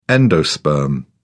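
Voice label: "endosperm", British English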